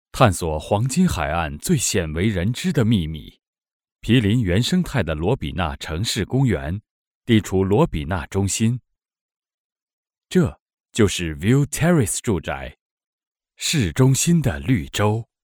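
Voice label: Chinese, male, narration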